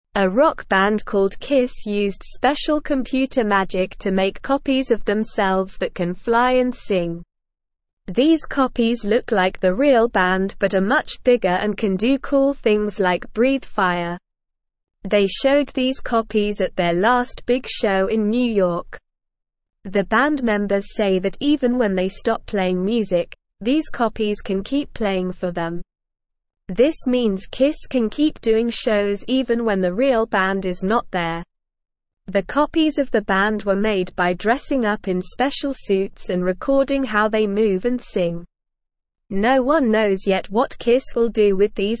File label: English Newsroom Beginner SLOW Reading